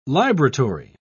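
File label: "libratory", British English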